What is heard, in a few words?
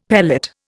"pellet", Indian; Dictionary